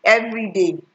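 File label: "everyday" Nigerian Pidgin, female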